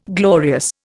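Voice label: "glory", Indian; Dictionary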